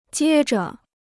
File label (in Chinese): "接着", Chinese